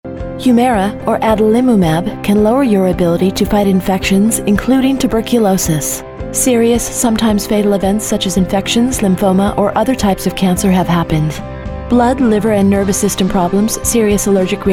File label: narration